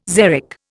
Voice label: "xeric", Indian

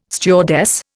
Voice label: "stewardess", Indian

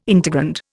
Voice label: "integrand", Indian

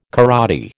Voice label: "karate", American

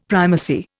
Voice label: "primacy", Indian; Dictionary

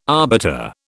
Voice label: "arbiter", British

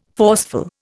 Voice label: "forceful", Indian

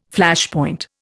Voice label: "Flashpoint", Indian

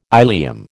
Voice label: "ilium", American

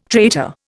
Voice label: "traitor", Indian

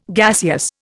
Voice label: "gaseous", Indian

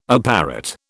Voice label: "apparat", British

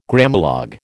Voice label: "Grammalogue", American

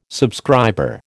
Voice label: "subscriber", American

